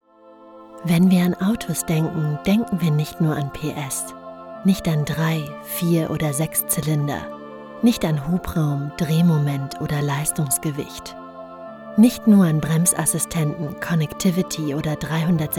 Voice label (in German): Imagefilm Sprecherin; Renault Bank